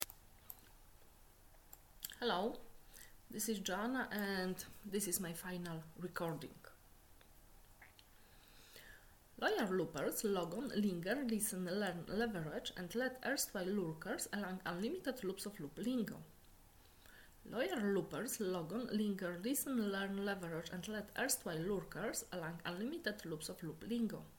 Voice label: tongue twister contest